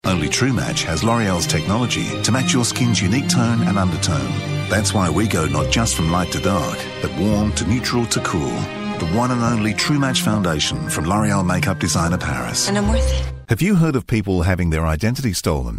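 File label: Australian English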